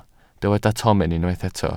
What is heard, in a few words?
"tomen", Welsh